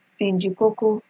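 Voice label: "stingykoko", Nigerian Pidgin, female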